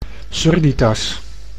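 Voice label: "surditas", Dutch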